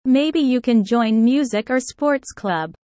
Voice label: low; studying abroad